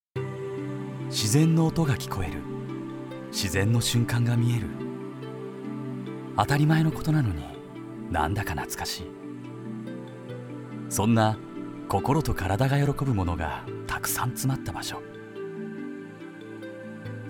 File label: narration